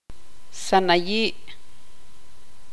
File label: tzutujil; arena